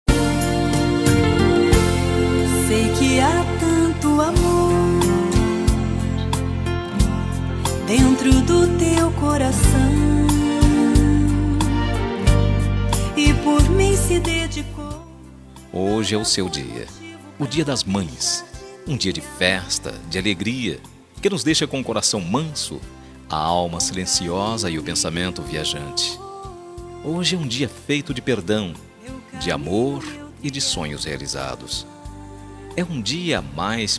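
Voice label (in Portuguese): Dia das Maes male, telemensagem; Dia das Maes Sing. MASC; telemensagem dia das maes filha e filho enviam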